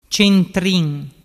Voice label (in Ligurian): "centrin", dialettu